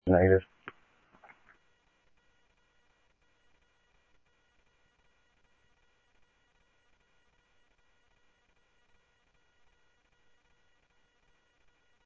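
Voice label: Mesa police department, scanner audio trunk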